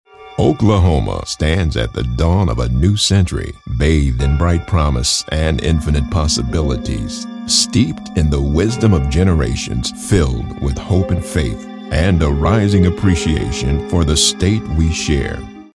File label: classy